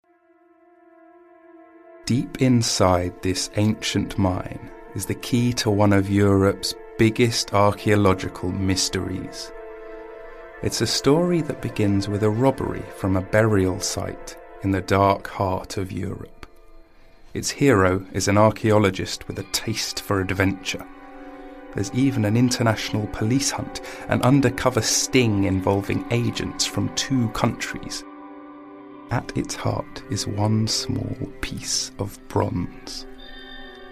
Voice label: english british documentaries

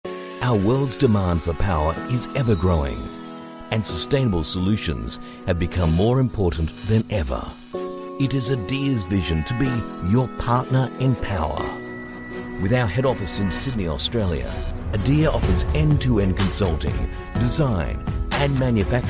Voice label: english australian corporate videos

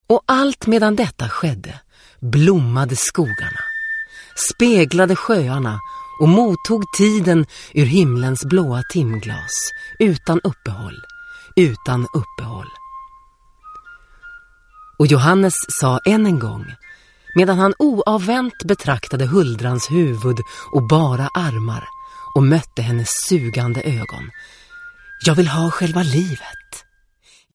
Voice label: swedish audiobooks

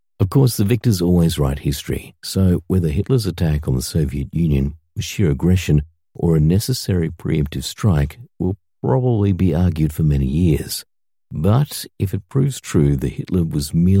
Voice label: english australian audiobooks

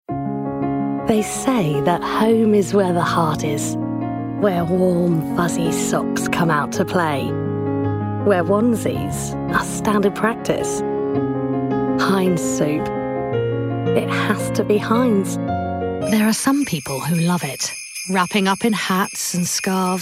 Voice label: english british commercial

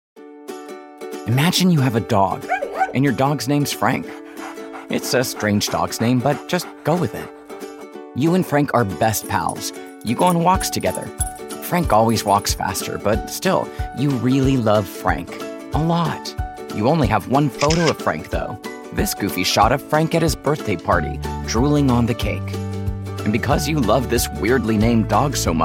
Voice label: english american narration; english american voice actors

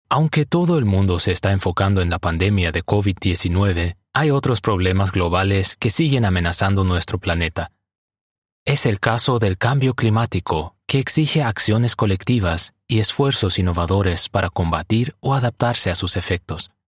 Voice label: spanish latin american narration

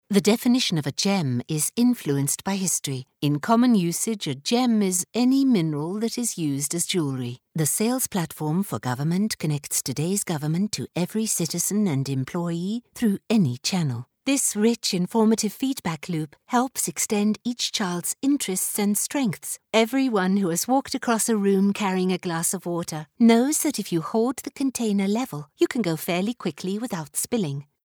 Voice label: english international corporate videos

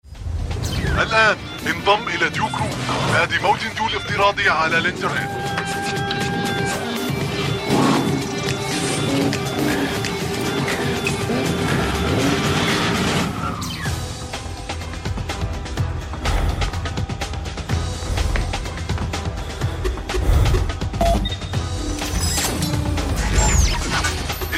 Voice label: arabic others

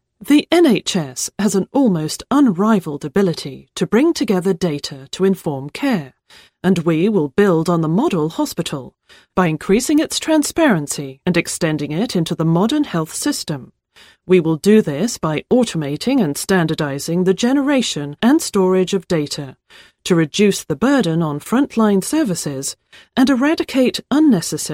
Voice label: english british corporate videos

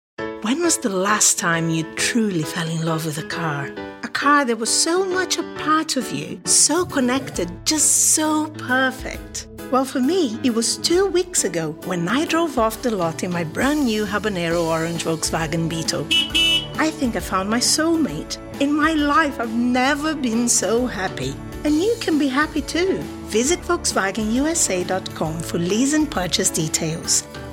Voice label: english international automotive

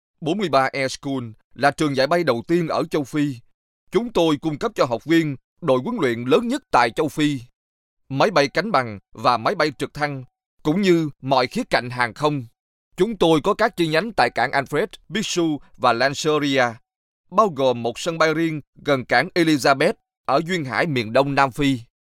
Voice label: Vietnamese narration; vietnamese voice over talent

